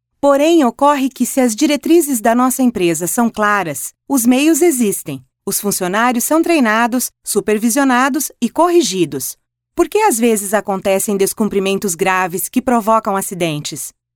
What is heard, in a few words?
locutora brasil brazilian portuguese, locutora brasileira